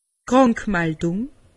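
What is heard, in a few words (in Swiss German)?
"krankmaldung", Alsatian; medecine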